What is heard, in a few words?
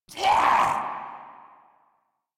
Pain Ghoul Saw, en; Grineer Ghoul Sawman